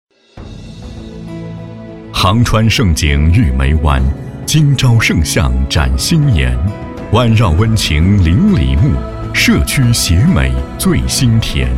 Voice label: 男 项目宣传; 杭川盛景育梅湾

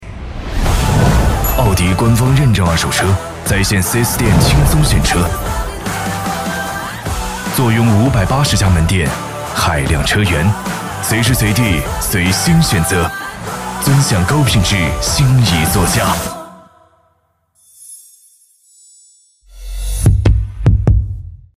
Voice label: 男 时尚磁性 广告; 奥迪广告

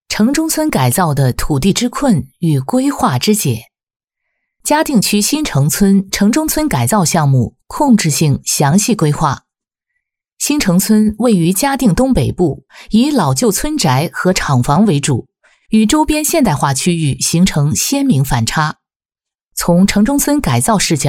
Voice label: 女 工程解说; 上海城中村改造

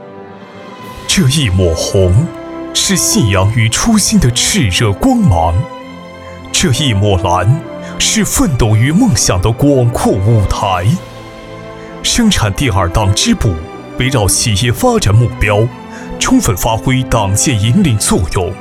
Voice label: 男 专题 党政类

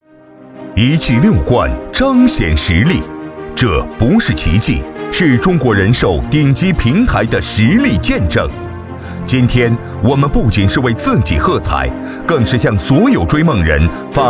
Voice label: 男 颁奖

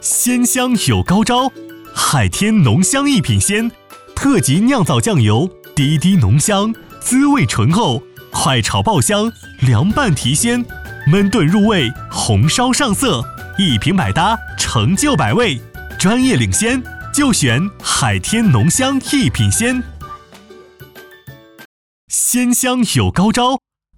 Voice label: 男 轻快广告; 海天

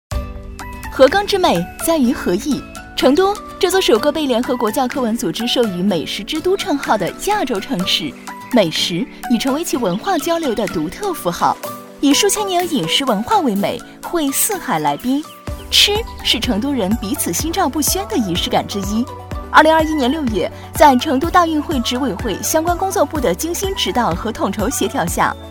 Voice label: 女 短视频 成都推荐体验餐厅

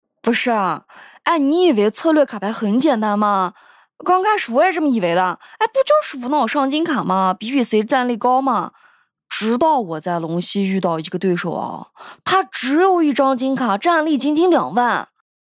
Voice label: 女 信息流 素人 新疆普通话

